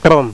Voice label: "man" "boy"

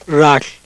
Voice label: melpa

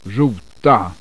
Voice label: swedish, vowels

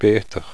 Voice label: gaelic, vowels

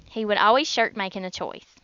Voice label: southern

